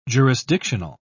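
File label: "jurisdictional", British English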